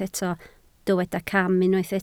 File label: "cam", Welsh